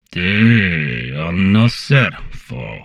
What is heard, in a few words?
humanoid portrait male; Stellaris; humanoids